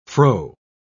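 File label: "froe", British English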